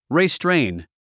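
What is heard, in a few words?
"restrain", English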